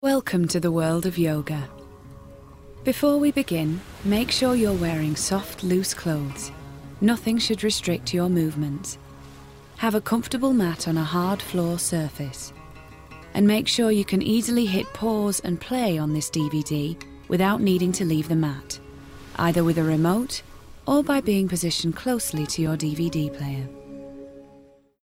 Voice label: Yoga Narration; Meditation (Northern accent)